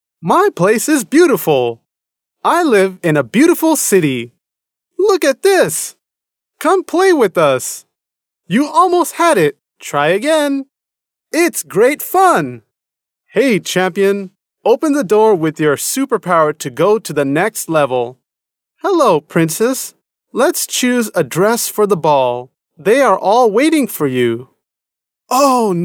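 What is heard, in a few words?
American English male children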